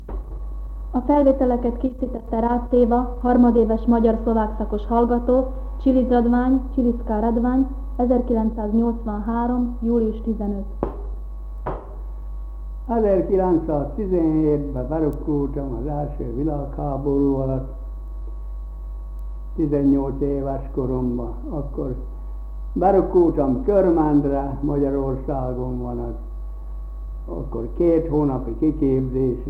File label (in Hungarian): nyelvjaras gyujtesek